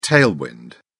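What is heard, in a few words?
"tailwind", British English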